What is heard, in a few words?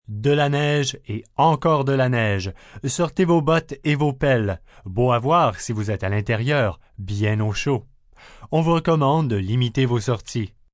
More Snow M, Broadcast, weather, male weather report in French, snow and more snow, news; human voice speaking; VoiceBox